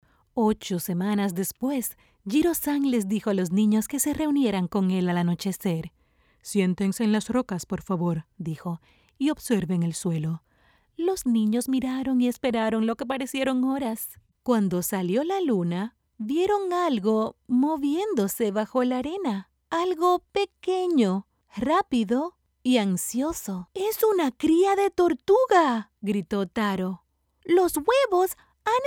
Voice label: spanish latin american audiobooks